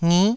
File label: "ngi9", taiwanese syllables